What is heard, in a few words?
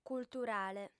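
"culturale", female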